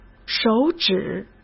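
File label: "手指", Mandarin Chinese; hsk; chinese-flashcards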